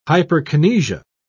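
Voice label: "hyperkinesia", British English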